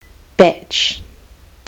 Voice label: "bach", kiwi english

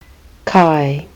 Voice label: "kai", kiwi english

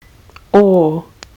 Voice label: aw as in gawk, kiwi english

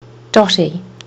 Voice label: "dotty", kiwi english

